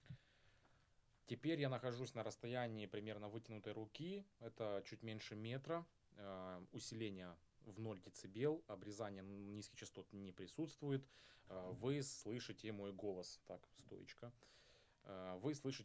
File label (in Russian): test zvuka v komnate smena rasstojanija; Тест звука в комнате смена расстояния; накамерний конденсаторний мікрофон гармата; Sennheiser MKE 400